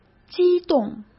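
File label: "机动", Mandarin Chinese; chinese flashcards; hsk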